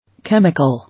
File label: "Chemical", English